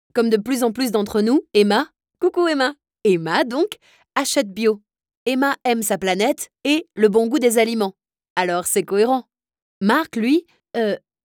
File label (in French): Voix Naturelle PUB